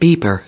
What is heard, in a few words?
"beeper", us